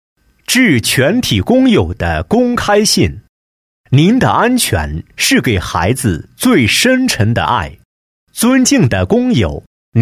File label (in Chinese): 男, 广播播报, 播报感, 安全公开信